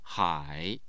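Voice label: "hai", Mandarin Chinese, tone 3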